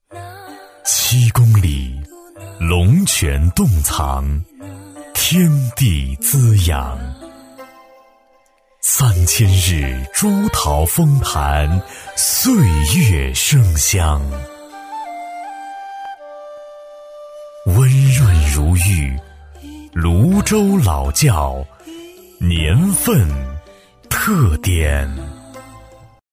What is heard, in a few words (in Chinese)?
男 广告配音 大气磁性; 大气沉稳 大气浑厚; 泸州老窖